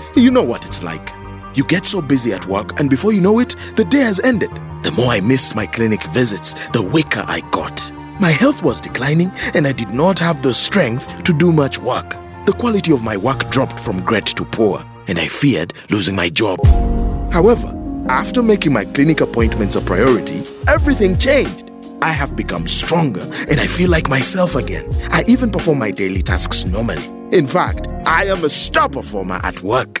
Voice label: Time Up HIV Spot ART Adherence Challenges English; radio spot